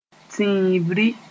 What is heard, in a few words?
"tĩibri", Moore; spur